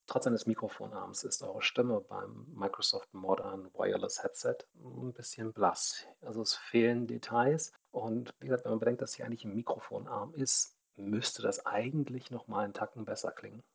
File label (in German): Wireless Headset Mikrofon Sample; Microsoft Modern